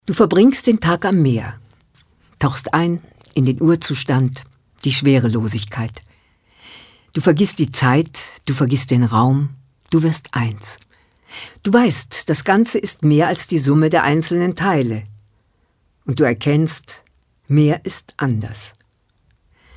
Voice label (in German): Schauspiel Neutral